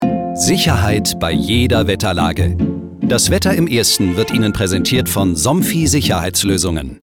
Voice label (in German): Werbung TV Patronat Sicherheit